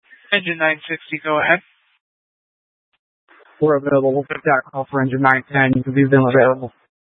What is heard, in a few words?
Fire Dispatch